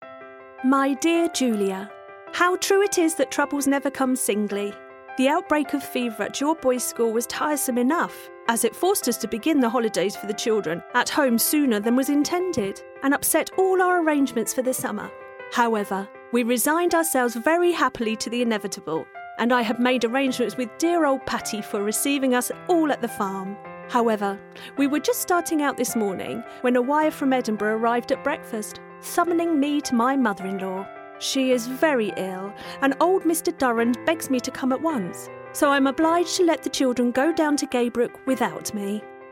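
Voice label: English UK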